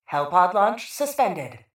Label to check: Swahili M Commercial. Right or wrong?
Wrong